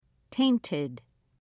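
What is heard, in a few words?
"tainted", British English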